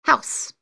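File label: "house", English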